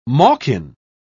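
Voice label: "malkin", English, uk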